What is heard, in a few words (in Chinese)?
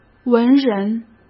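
"文人", Mandarin Chinese; chinese-flashcards; hsk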